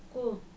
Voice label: "Ku", Dinka